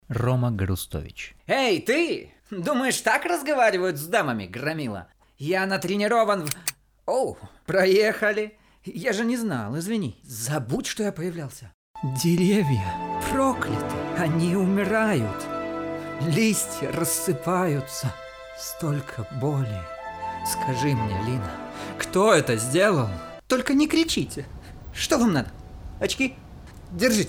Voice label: Audio Ruso